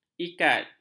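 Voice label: "ikat", Malay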